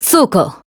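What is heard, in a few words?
AGREE Japanese; Python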